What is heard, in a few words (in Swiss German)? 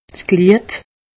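"glied", Haut Rhin; medecine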